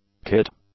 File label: "kit", English; nouns